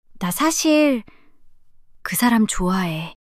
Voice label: shy